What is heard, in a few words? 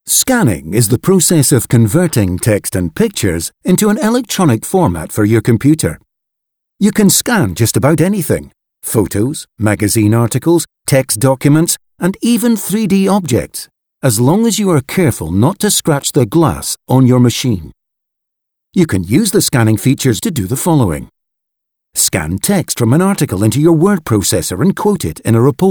Audio books; England